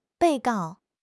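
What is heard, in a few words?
"被告", Chinese; HSK6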